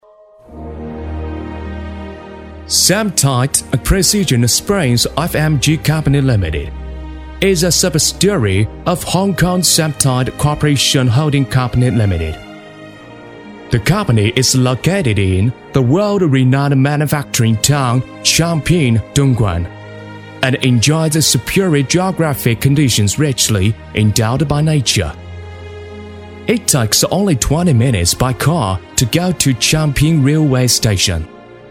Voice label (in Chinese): 男英 专题 企业 公司 大气; 英语